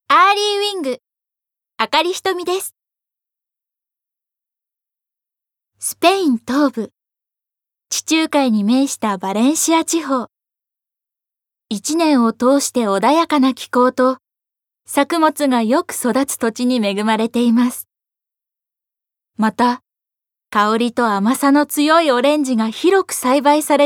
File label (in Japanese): ナレーションALL